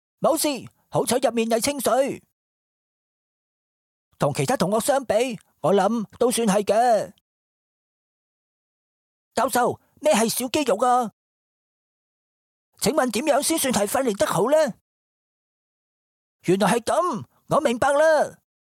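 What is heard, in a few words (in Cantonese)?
Cantonese, Kids Voice; voice demos; GoLocalise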